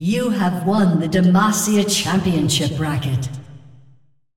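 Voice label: announcer female, American English; Clash; tournaments win champ bracket demacia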